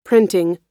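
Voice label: "printing", American English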